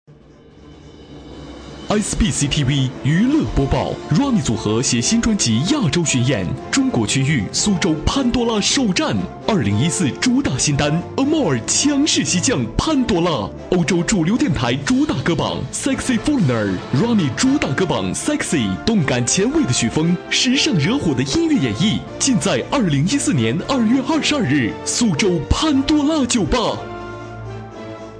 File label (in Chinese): 男 广告; 潘多拉